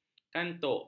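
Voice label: "kantuk", Malay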